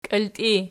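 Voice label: "kiiltii" nominative, Archi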